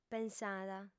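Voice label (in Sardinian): "pensada", female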